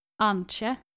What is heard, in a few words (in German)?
"antje", nordische maedchennamen